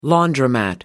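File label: "laundromat", British English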